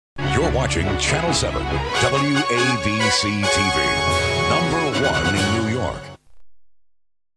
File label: jingle you're watching; wabc7; wabctv